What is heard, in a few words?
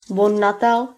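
christmas, Lingua Franca Nova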